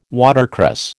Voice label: "watercress", American